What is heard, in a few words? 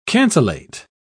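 "cancellate", British English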